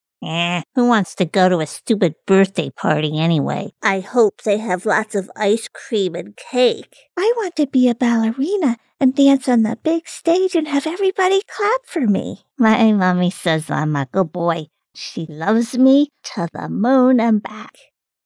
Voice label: Child Voices